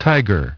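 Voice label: "tiger", English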